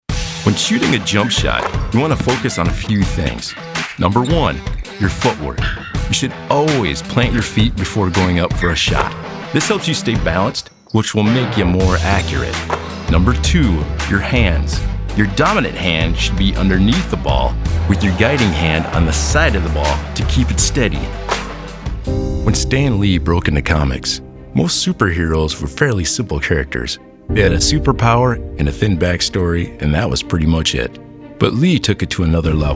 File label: narration; basketball; Stan Lee